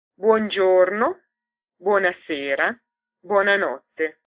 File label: good morning evening night, ita